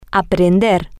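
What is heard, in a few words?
Spanish, to turn on, female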